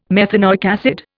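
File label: "methanoic acid", English, Indian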